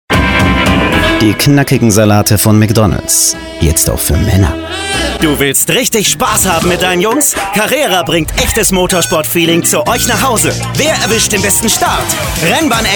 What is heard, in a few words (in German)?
Werbung cool maennlich warm; McDonalds; Carrera